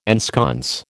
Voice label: "ensconce", American

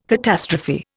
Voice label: "catastrophe", Indian

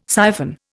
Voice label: "siphon", Indian

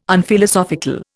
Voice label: "Unphilosophical", Indian

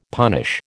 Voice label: "punish", American

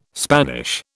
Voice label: "Spanish", British

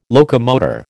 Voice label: "locomotor", American

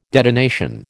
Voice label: "detonation", American

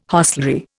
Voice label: "hostelry", Indian

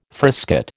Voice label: "frisket", American

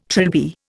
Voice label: "trilby", Indian

